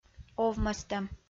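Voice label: "овмӧстӧм", Komi, female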